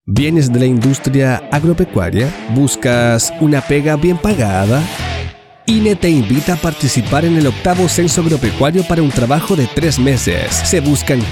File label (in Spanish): Spot Publicitario Voice Over; INE Espectro